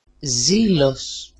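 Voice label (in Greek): "zilos", Greek; ζήλoς; zeal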